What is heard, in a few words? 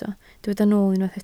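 "nool" Welsh, spoken word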